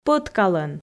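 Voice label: "пӧткалӧн" Komi, female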